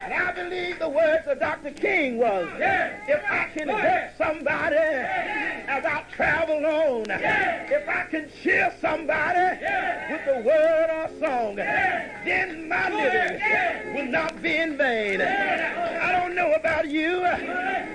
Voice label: preacher vinyl; Evangelists and Preaching and Religious; dr king